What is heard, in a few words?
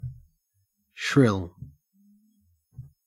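"shrill", Australian English; pronunciations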